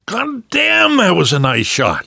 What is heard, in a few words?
nice shot, namvet, survivor voice; Left 4 Dead 2; left4dead2 dlc2